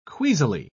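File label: "queasily", British English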